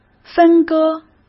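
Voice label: "分割" Mandarin Chinese; hsk; chinese-flashcards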